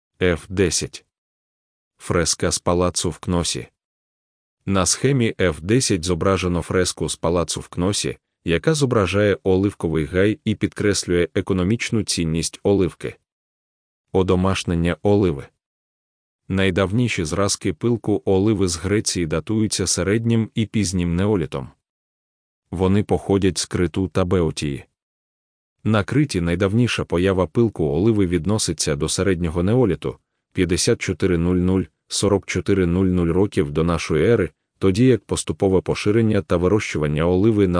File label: ukrainian